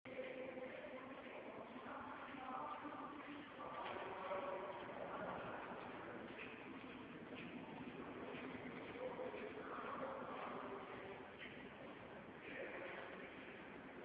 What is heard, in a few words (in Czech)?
Hlaseni znelka; Vitkovice